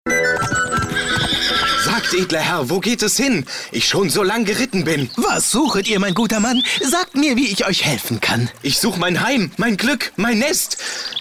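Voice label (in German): Werbung, Abgedreht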